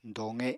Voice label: "doqe", Apatani

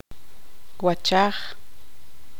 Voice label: tzutujil; ojo

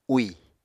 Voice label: "úì", Apatani

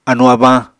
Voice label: "anu-abañ", Apatani

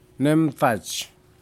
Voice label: fish little Spinefoot, Aneityum

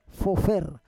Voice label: "fofer", Roon

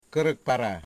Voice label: "hand finger", Wamut

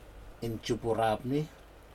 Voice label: "injupurapni", sun sets, Aneityum

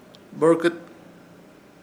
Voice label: "Borkɨt", Ishir Chamacoco; mula

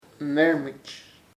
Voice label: aunt uncle tía tío, chamacoco

